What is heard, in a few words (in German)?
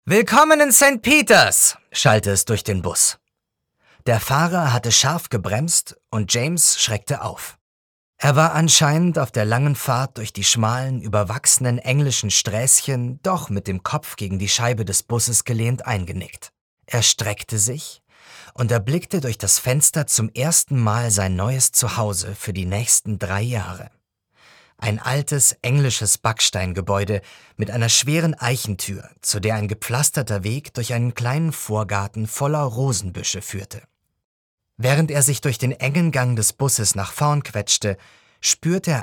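Hörbuch Jugend DE; James in England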